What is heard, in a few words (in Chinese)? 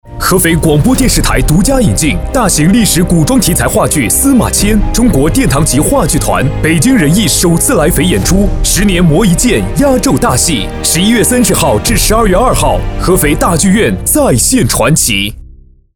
男 广告配音 大气厚重 电视台